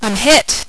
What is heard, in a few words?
I'm hit, radio, female; vortex